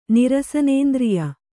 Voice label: "ನಿರಸನೇಂದ್ರಿಯ", kannada